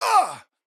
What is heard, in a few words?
Hurt